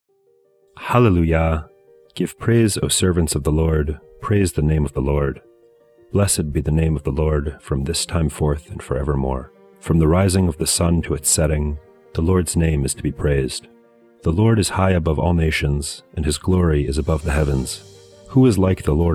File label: poetry and prayer psalm english